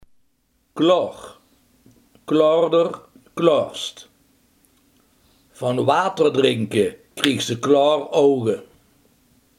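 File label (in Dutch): helder, Limburgse gesproken woordenboeken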